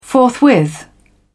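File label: "forthwith", English, British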